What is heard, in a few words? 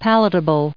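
"palatable", us English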